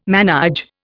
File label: "manège", Indian